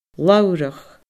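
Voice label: "labhrach", Scottish Gaelic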